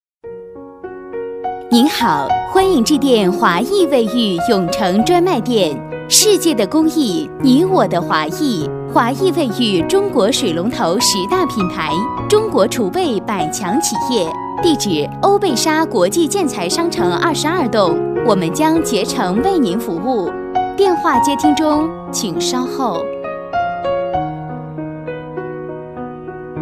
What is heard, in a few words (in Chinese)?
女 年轻甜美, 彩铃 卫浴 配乐; 华艺卫浴; 国语女声配音